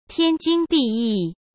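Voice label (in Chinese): "天经地义", Mandarin Chinese; chinese flashcards; hsk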